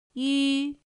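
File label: "ǖ", chino mandarin; pinyin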